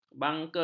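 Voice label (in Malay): "bangka", Malay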